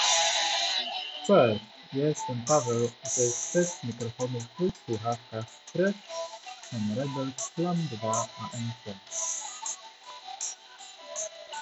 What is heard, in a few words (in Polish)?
test mikrofonow anc otoczenie; fresh n rebel clam 2